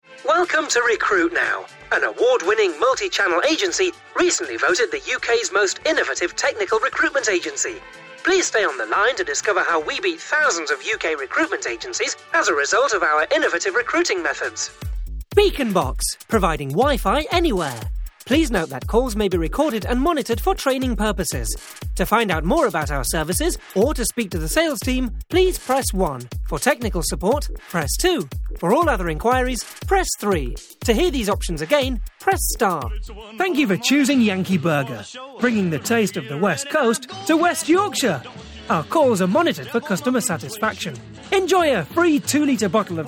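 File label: english british interactive voice response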